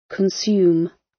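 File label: "consume", British English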